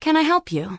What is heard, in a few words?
Human Female Warrior NPC Greeting